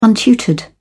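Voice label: "untutored", English, British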